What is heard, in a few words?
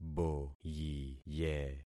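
"boyiye", concatenated syllables; Affixing experiment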